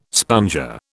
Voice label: "sponger", British

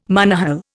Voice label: "monohull", Indian

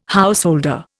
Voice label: "householder", Indian

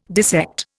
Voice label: "dissect", Indian

